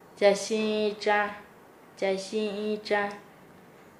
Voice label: Nasa Yuwe; propio de el; paez